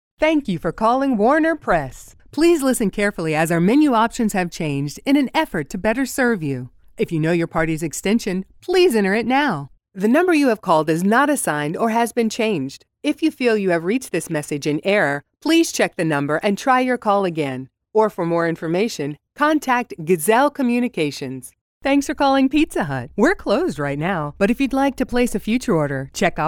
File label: telephony